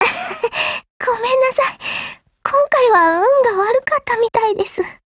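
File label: Japanese, Voice; BREAK; GF Alarm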